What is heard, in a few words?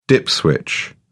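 "dip switch", British English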